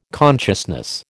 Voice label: "consciousness", American

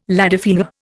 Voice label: "ladyfinger", Indian

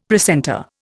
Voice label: "precentor", Indian; Dictionary

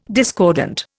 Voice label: "discordant", Indian

